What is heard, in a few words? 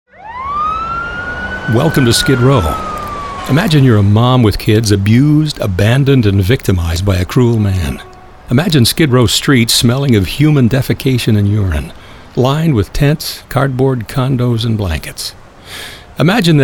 spots newsradio